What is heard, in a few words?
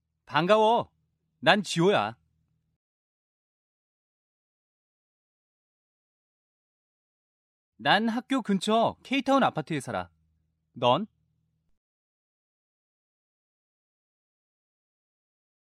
park english